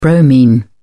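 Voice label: "bromine", British English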